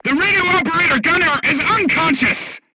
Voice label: voice message machine gunner contused, crew tanks vietnamese